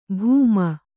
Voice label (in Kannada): "ಭೂಮ", Kannada